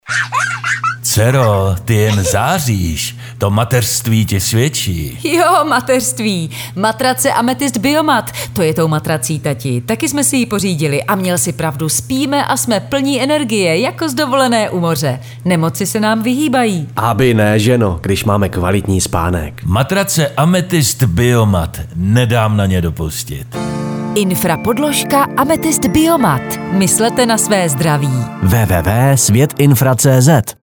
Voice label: spot